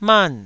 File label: "man4", Taiwanese